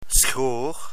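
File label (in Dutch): "schoor", Dutch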